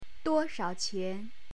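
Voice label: "duo1shao3qian2", Chinese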